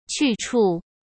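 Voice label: "去处", Mandarin Chinese; chinese-flashcards; hsk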